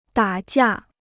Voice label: "打架", Chinese

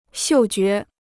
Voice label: "嗅觉", Chinese

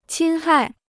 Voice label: "侵害", Chinese

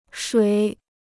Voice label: "水", Chinese

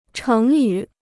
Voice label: "成语", Chinese

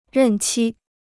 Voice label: "任期", Chinese

